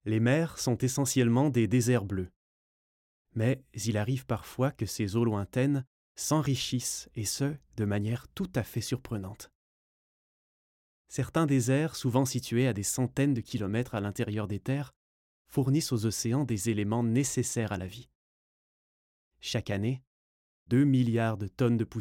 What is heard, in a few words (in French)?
narration; notre planete